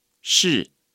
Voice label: "是", Chinese

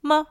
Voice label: "麼", Chinese

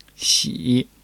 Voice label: "喜", Chinese

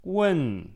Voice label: "問", Chinese

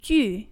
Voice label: "具", Chinese

